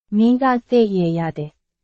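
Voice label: Burmese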